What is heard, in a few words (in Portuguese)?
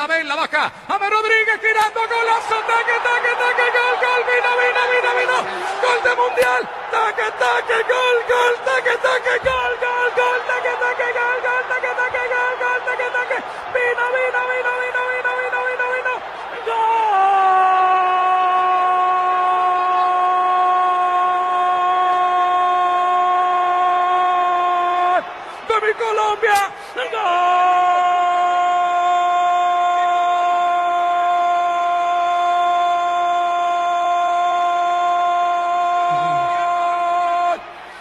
LOCUTOR COLOMBIANO NARRA GOL; GOL DE JAMES RODRIGUES NA COPA DE 2014